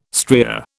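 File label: "stria", British English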